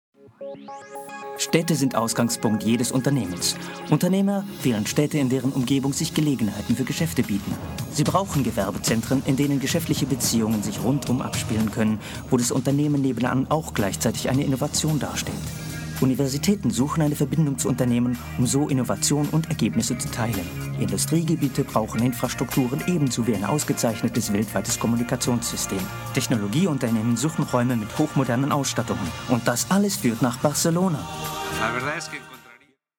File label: Radio commercial, GERMAN MALE